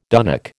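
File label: "dunnock", American English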